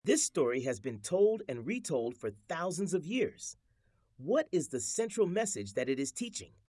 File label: reading